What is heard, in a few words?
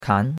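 "kan", Chinese, second tone; Cours-de-Chinois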